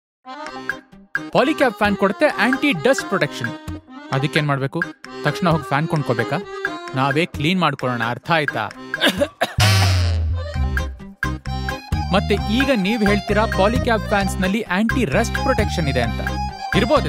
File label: KAN, kannada